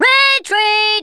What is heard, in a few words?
run away, Drill Sergeant; Worms Armageddon